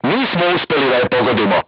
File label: voice message armor not pierce, crew tanks serbian